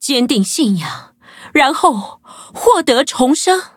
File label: upgrade, zh-hant; cookie run